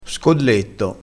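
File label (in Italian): "scolletto", dialettale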